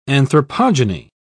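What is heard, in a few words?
"anthropogeny", English, British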